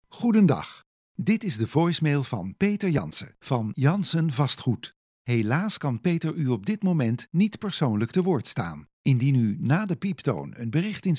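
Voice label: DUT M, Dutch male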